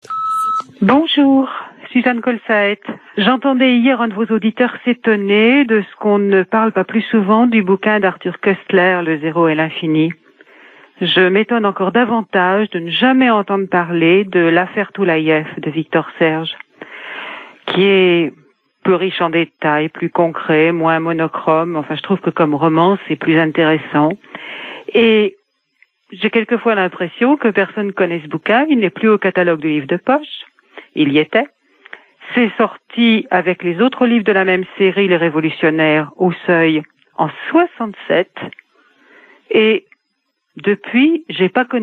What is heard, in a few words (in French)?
repondeur